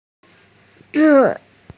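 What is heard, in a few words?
"bu4", Mandarin Chinese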